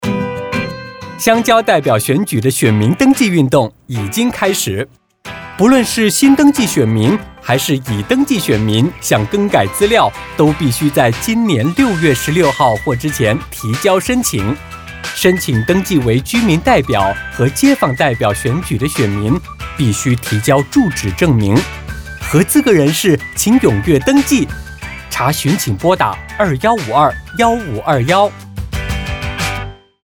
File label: Radio; rural representative elections; PTH